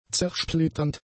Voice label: "zersplitternd", German; adjectives

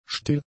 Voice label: "still", German; adjectives

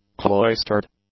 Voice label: "cloistered", English; adjectives

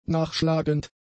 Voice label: "nachschlagend", German; adjectives

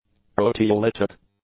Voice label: "proteolytic", english; adjectives

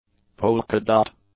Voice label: "polka-dot", english; adjectives

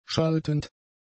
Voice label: "schaltend", german; adjectives

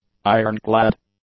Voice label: "ironclad", english; adjectives

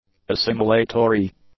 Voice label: "assimilatory", English; adjectives

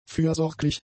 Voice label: "fürsorglich", German; adjectives

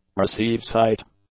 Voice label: "receive-site", English; adjectives

